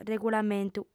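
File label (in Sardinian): "regulamentu", female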